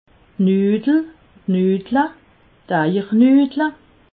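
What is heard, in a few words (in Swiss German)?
"nudel", Alsatian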